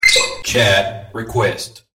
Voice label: chat